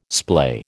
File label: "splay", American